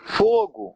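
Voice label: fire, Brazilian Portuguese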